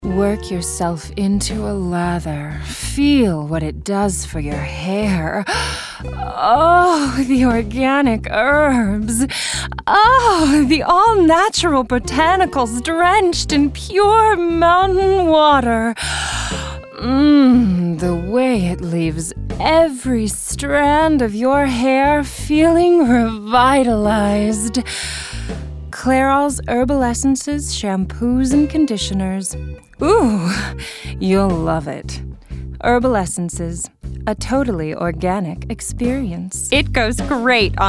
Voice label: Voiceover